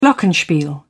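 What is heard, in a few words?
"glockenspiel", English, British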